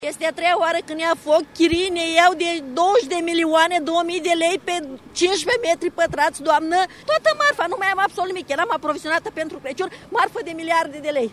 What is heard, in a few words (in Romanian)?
vox comercianta; incendiu puternic la un centru comercial; Suceava